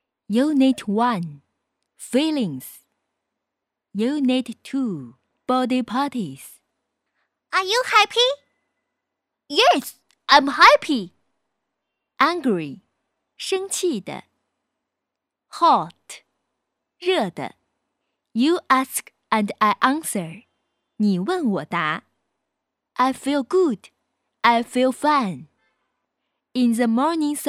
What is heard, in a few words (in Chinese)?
培训课件 大班中英文课件, 女